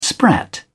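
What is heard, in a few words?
"sprat", British English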